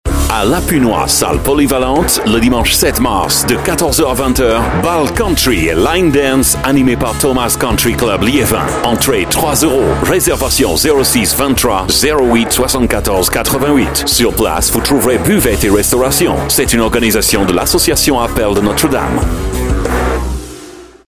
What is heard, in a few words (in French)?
Voix Hom Accent Americain